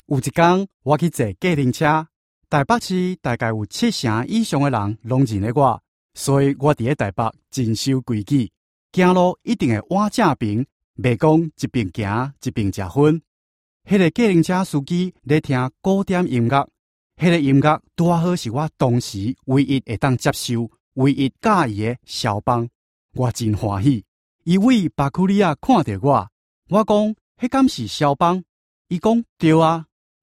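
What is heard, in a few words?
Chinese Taiwanese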